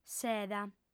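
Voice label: "seda", Sardinian, female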